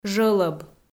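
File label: "жӧлӧб", Komi-Zyrian, female; audio dict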